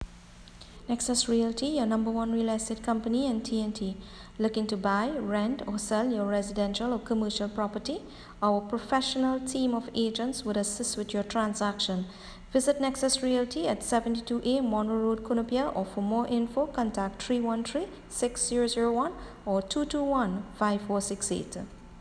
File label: voice ad; Nexus Realty